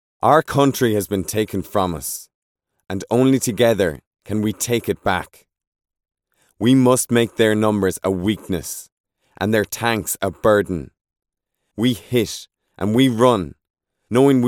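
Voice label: Irish, Video Game; GoLocalise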